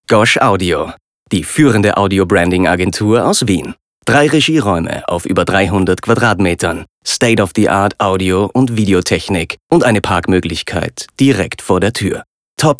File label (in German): plakativ, speakers